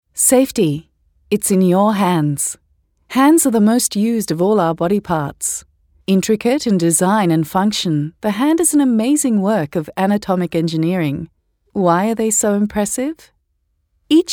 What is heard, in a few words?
VO - ELearning